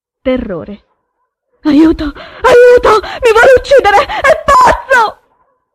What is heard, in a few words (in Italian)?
terrore